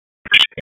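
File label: Raleigh Police Special Operations Tactical, p25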